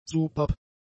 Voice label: "superb", German; adjectives